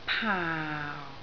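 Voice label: "paau4" Cantonese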